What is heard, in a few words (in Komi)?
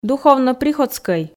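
"духовно-приходскӧй", Komi, female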